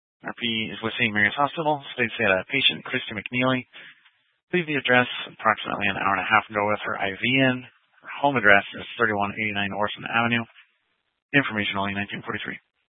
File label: Sheriff Dispatch, scanner; Mesa County